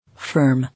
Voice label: "Firm", English

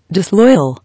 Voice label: "Disloyal", English

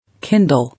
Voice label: "Kindle", English

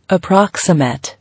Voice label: "Approximate", English

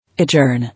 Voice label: "Adjourn", English

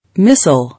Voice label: "Missile", English

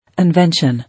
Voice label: "Invention", English

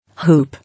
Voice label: "Hoop", English

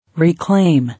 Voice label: "Reclaim", English

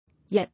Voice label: "Yet", English

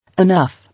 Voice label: "Enough", English

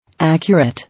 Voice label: "Accurate" English

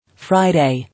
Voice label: "Friday", English

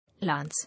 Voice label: "Lance", English

